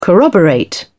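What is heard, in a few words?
"corroborate", British English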